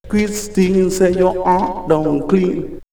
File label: Jamaican Are not clean, vox; X-Static Goldmine